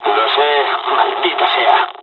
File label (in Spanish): dialog, dialogs spanish; us midway; War Thunder